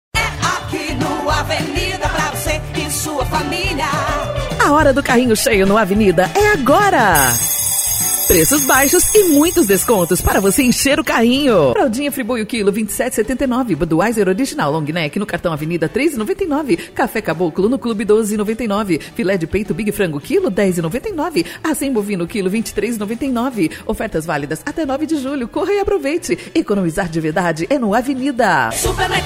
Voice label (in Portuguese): locutora